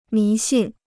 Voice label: "迷信", Chinese